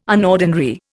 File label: "unordinary", Indian